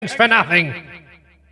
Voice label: failure, announcer; tf2cciv; open fortress